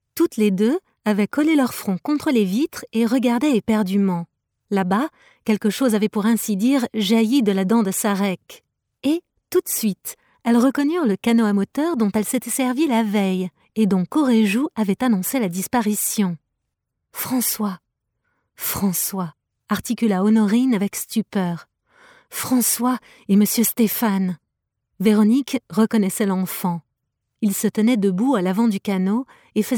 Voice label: french audiobooks